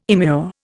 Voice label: "immure", Indian

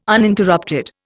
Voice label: "Uninterrupted", Indian

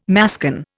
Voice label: "mascon", Indian

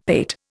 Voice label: "pate", Indian; Dictionary

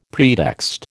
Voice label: "pretext", American

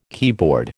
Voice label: "keyboard", American

